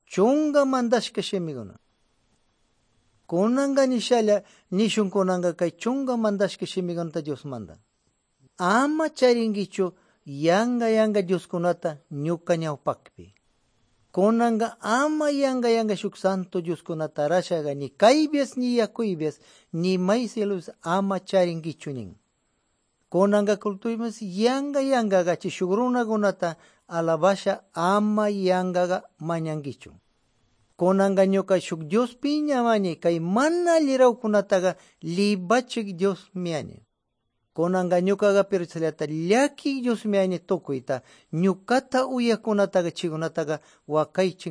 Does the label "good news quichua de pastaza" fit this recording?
Yes